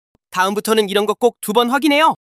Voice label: anger; monologue